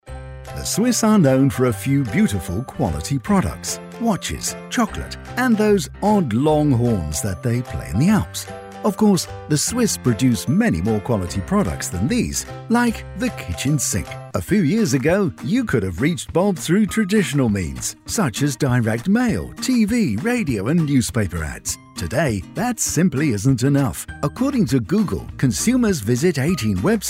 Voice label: english british commercial